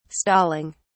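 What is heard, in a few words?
"stalling", British English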